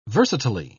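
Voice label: "versatilely", British English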